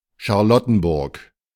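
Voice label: "Charlottenburg", German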